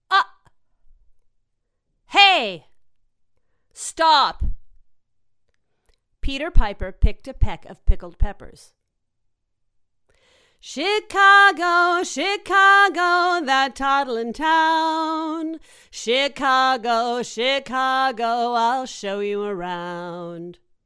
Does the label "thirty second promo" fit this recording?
No